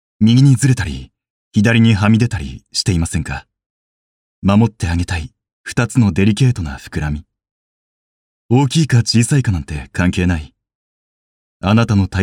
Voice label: dansei; nenrei

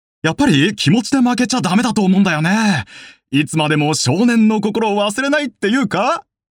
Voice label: dansei; nenrei

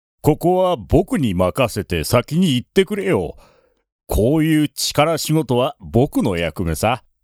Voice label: oootoko; その他の性格; （大男）

